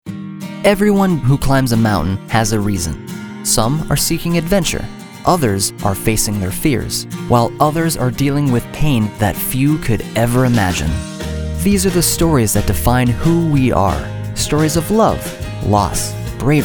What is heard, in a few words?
Narration